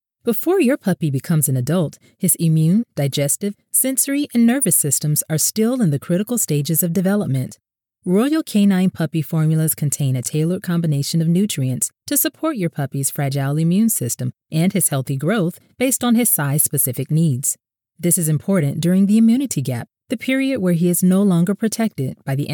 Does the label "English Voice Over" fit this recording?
Yes